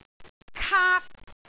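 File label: "kaak1", Cantonese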